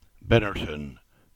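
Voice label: English; Binnerton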